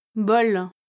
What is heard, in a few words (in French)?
"bol", French